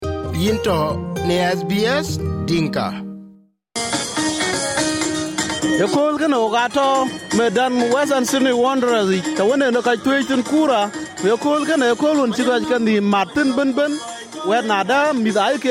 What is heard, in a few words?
dinka, podcast; Wel puɔ̈th lëëk tɛn kɔc wen jɔl piäc jɔk thuëc kura yiic tɛn bääi.; SBS Dinka